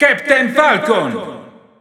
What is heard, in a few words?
Italian Announcer; Captain Falcon; SSBU